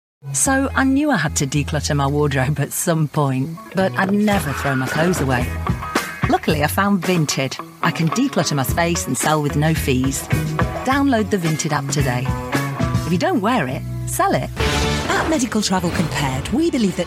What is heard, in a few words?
commercial